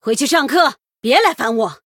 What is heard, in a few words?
Female Human Start; zh; 渗透者莉莉安; 对战：明星学员斯特里娜; Start:Star Student Stelina